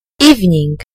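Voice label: "evening", British English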